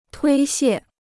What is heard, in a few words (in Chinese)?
"推卸", Chinese